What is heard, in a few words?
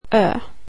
swedish alphabet oe